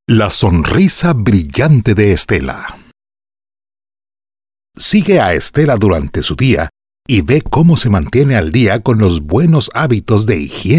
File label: Spanish Voice over